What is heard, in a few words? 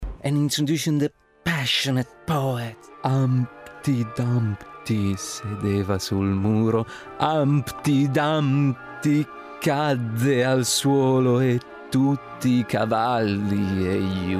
passionate, italian actor; Passionate Poet; Citylit - London